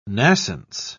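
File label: "nascence", British English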